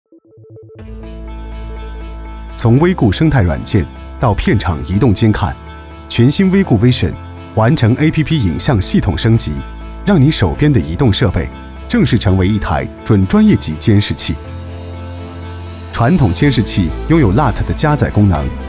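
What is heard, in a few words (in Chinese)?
男国大音-科技感; 威固-产品手册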